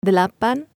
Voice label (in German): zaehlen Indonesisch